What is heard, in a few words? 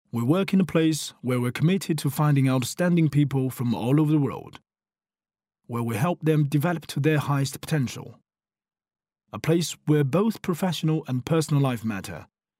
eng